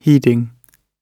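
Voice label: "heeding", British English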